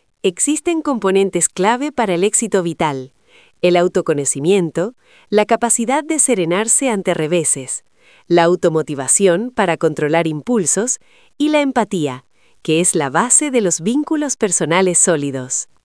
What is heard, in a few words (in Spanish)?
locucion